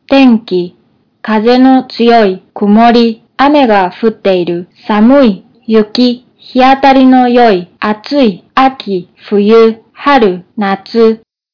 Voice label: japanese weather

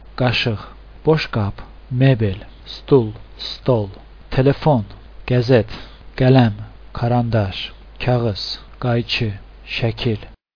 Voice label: azerbaijani

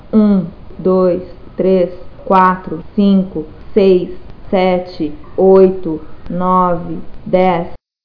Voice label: brazilian; numbers

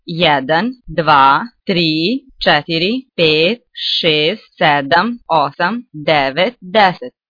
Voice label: croatian numbers

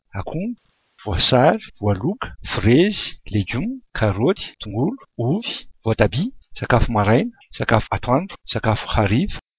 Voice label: malagasy food

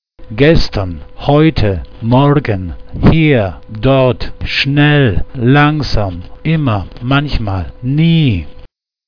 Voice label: german

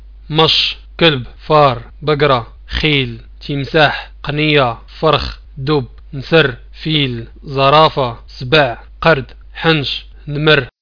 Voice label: moroccan animals

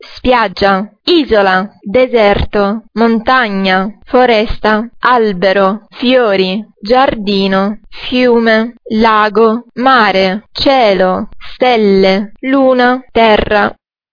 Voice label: Italian nature

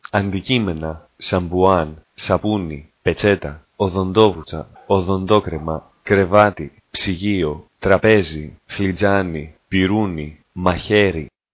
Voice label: greek

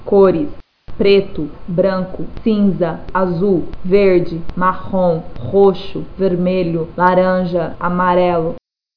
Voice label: brazilian; colors

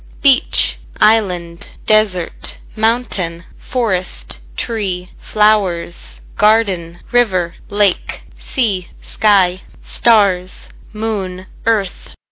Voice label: english

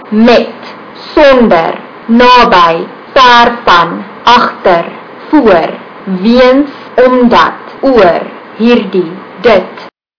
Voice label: afrikaans; words

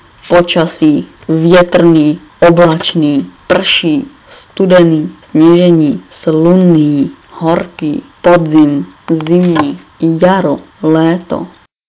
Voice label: czech; weather

